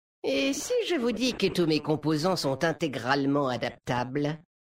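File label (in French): French Homme Flirt; Mécagnome; Composants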